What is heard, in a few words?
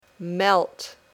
"melt", English